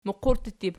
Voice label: "muqurttutib", Archi

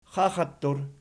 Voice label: "xaxattur", Archi

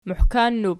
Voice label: "muhkannub", Archi

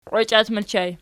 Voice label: "qwechiat", Archi

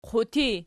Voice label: "qutii", Archi

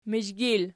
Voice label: "mizhgil", Archi

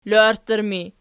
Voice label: "loarttur", archi

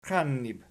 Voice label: "qiannib", Archi

